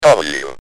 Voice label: "W", UK Speech